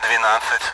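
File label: Speech Sound Russian Common AWACS Numbers; DCS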